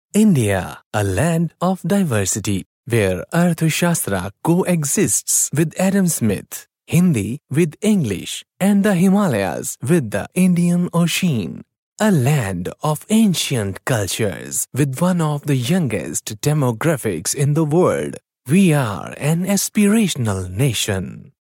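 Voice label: Punjabi, male, English Sample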